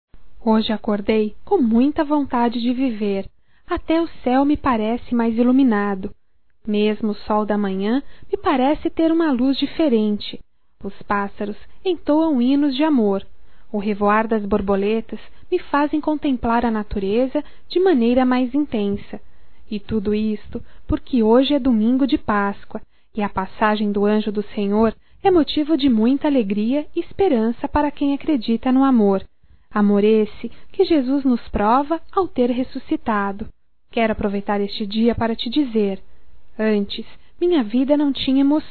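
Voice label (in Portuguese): PASCOA ROMANTICA, female, Telemensagens, Só Voz; Telemensagens 2017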